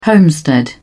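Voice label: "homestead", British English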